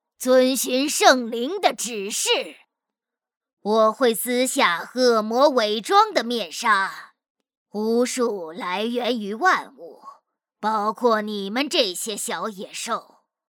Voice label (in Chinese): 女 游戏 中年